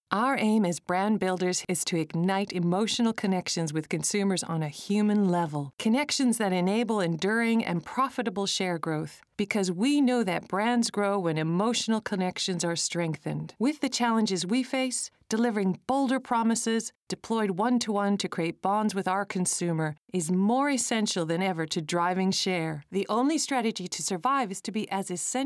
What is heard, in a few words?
voice over sample